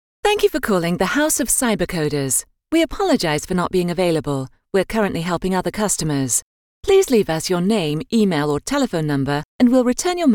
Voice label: phone message; cybercoders